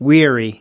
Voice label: "weary", us